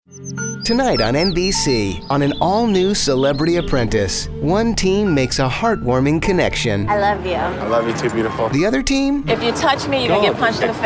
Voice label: american commercial